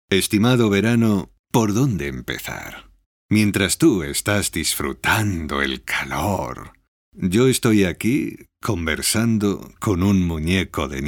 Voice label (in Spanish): spanish commercial